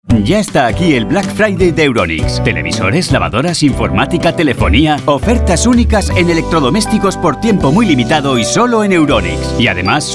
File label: radio